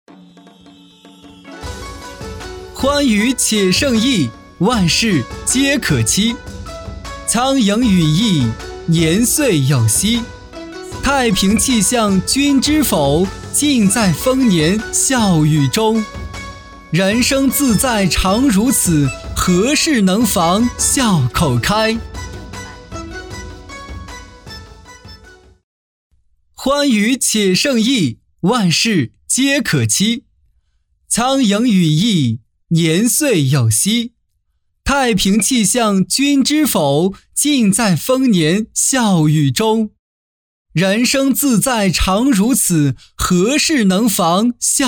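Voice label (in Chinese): 旁白素人欢喜; 欢愉且胜意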